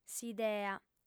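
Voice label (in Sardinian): "s'idea", female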